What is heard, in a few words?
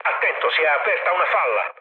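Italian damaged water mood low, speech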